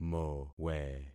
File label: "mowe", concat syllables; Affixing experiment